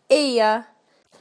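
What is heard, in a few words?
"dolls corner", British English; PictureDictionary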